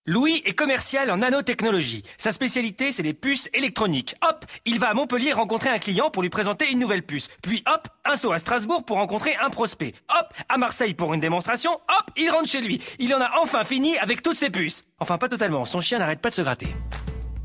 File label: spot, radiopub